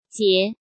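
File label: "Jié", Chinese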